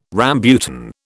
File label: "rambutan", British